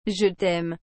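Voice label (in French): "Je taime", French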